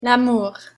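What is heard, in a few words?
pronunciation "lamour", French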